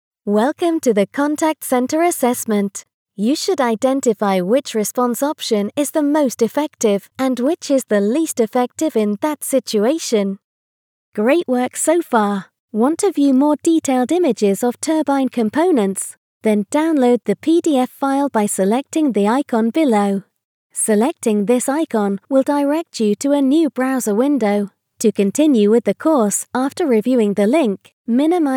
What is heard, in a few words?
Warm Friendly Elearning Sample